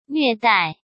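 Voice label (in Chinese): "虐待", Mandarin Chinese; hsk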